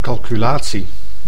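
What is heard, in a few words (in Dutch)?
"calculatie", Dutch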